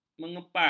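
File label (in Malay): "mengepal", Malay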